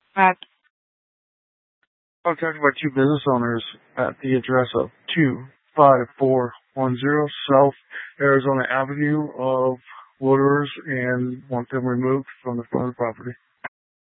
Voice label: Sheriffs Office, scanner